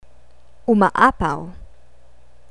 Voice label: "umaapaw", Tagalog

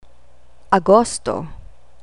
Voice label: "agosto", Tagalog; august

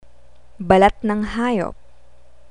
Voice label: balat ng hayop, Tagalog

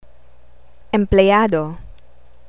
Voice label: "empleyado", Tagalog

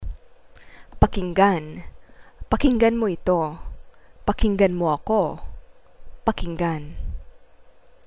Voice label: pakinggan, Tagalog

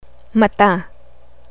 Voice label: "mata", Tagalog; body parts

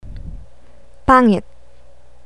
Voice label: "pangit", Tagalog

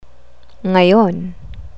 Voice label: "ngayon", Tagalog

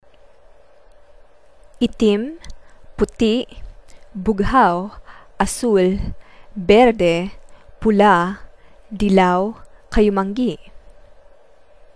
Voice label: colors, Tagalog

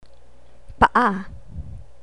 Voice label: "paa", Tagalog; body parts

